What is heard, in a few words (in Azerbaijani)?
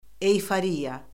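"eyforiya", Azerbaijani; Orfoqrafiya Lüğəti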